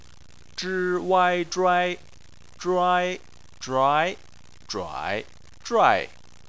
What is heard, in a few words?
"zhuai", Chinese